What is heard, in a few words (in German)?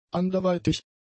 "anderweitig", German; adjectives